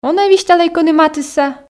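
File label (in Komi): он-ӧ висьталӧй кӧні матысса, Komi, female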